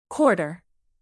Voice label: "quarter" coin